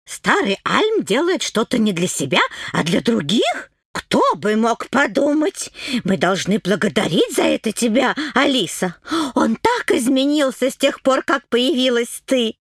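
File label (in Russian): babushka pitera, grandmother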